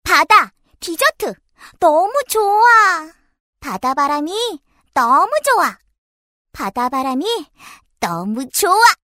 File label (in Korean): 즐거운 귀여운 발랄 요정 게임 10대